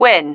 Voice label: "win", female